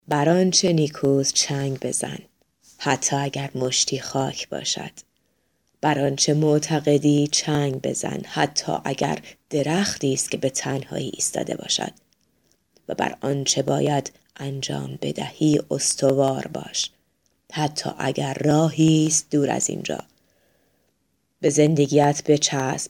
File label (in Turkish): Farsca Kadin Iran; seslendirme